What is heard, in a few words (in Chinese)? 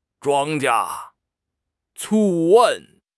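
"庄稼", Chinese; HSK6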